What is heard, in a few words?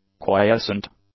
"quiescent", English; adjectives